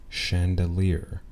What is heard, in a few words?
"chandelier", anglais